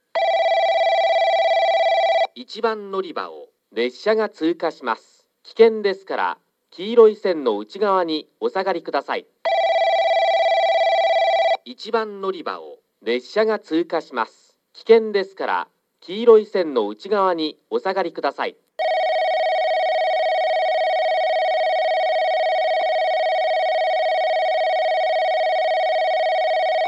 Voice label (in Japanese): 通過放送＋ベル 男声